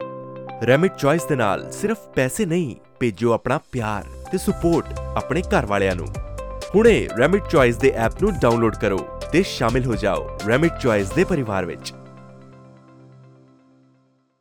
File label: Remit Choice punjabi voice over